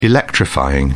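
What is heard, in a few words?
"electrifying", British English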